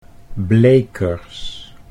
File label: "blekers", Dutch